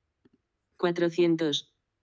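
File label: "cuatrocientos", Spanish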